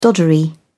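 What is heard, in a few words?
"doddery", British English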